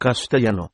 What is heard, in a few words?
spanish